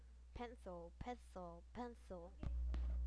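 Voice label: "pencil", spoken word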